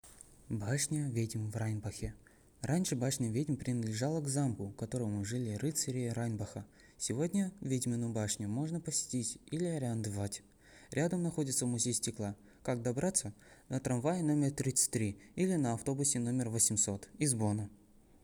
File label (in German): Russisch; Hexenturm Rheinbach